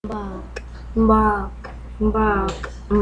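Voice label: "bog", spoken word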